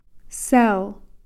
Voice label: "seel", Cow Creek; ink